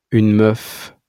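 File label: "une meuf", French pronunciation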